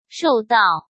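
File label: "受到", Mandarin Chinese; chinese-flashcards; hsk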